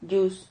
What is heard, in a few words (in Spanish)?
"lluz", Silabas